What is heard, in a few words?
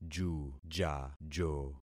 "jujajo", concat syllables; Affixing experiment